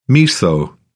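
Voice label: "miso", British English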